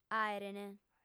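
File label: "àerent", Sardinian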